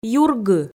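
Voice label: "юргы", Komi, female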